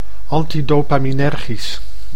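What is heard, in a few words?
"antidopaminergisch", Dutch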